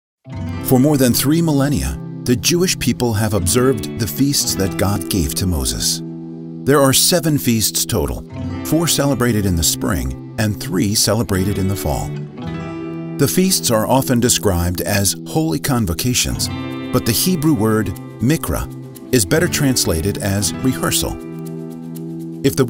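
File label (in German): Dokumentarfilm Erzähler Breites Publikum; NATIVE PROFISPRECHER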